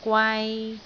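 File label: "gwai3", Cantonese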